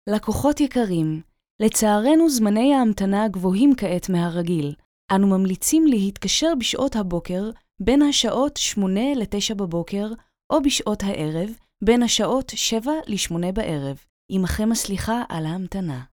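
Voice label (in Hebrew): Hebrew, קריינות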